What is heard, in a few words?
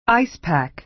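"ice pack", American English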